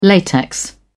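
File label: "latex", British English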